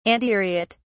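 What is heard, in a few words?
"antiriot", British English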